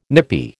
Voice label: "nippy", American